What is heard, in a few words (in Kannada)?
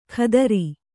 "ಖಾತ್ರಿ", Kannada